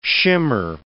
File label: "shimmer", words